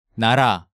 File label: "Nara", Hangeul sounds